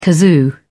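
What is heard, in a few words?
"kazoo", British English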